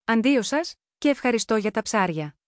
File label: so long Greek; Greek (Modern)